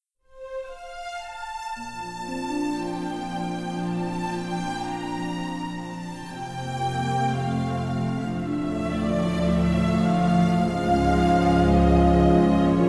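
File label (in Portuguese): birthday DE NAMORO female, telemensagem; aniversario de namoro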